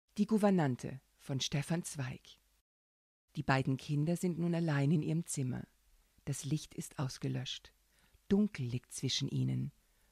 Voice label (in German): Hoerbuch